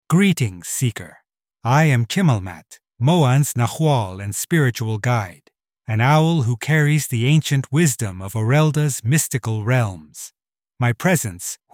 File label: English; Chimalmat